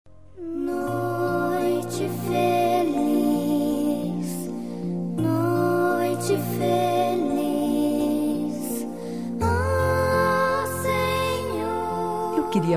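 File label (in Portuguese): Feliz Natal e Ano Novo pra Crianca, female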